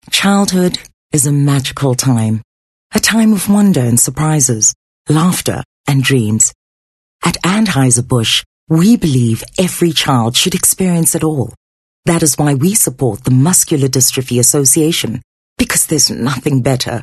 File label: English Softsell